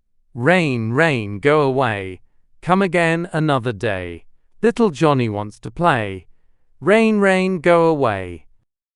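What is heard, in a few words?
male British, classic rhymes; Rain Rain Go Away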